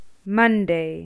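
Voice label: "Monday", English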